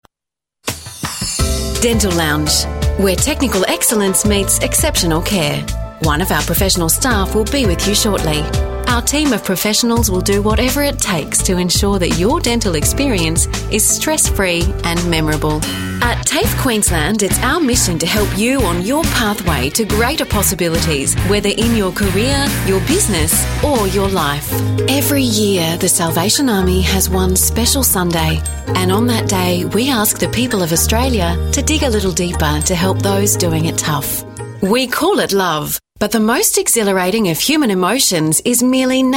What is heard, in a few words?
Messages On Hold Compilation